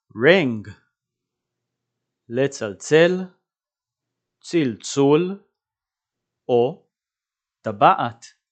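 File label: "ring" English, "לצלצל צלצול טבעת" Hebrew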